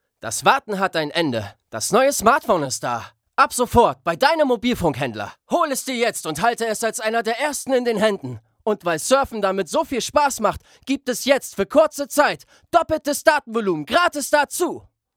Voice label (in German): Werbung intensiv; LOFT VOICES